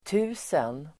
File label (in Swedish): "tusen", Swedish